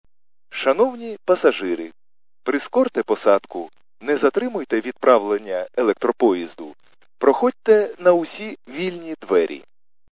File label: khs st male, subway station